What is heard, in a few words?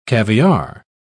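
"caviare", British English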